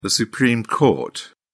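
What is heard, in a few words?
"supreme court", British English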